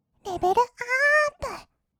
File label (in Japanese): レベルアップ, kawaii voice; ASMR; 色々なワンショット